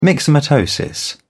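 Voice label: "myxomatosis", British English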